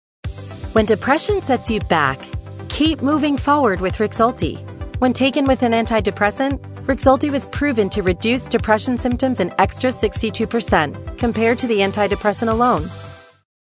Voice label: Commercial Pharmaceutical